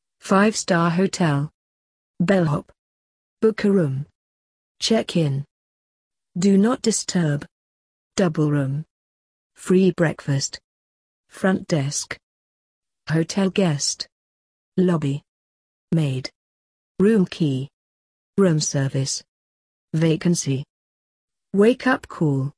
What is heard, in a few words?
uk hotels